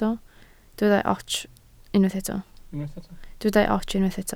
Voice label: "ots", Welsh

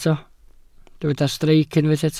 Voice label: "streic", welsh

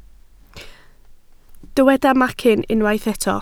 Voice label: "macyn", Welsh

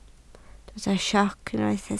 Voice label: "sioc", Welsh

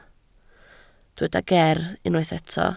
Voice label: "ger", Welsh

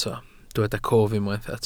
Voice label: "cof", Welsh

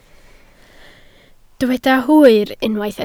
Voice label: "hwyr", Welsh

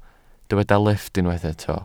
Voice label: "lifft", Welsh

